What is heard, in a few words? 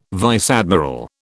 "vice admiral", British